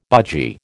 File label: "budgie", American